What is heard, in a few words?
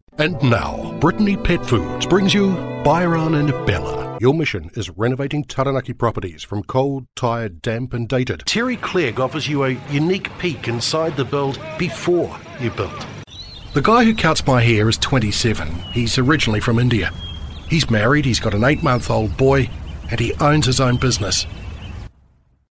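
Radio, male, English speaking, New Zealand Voice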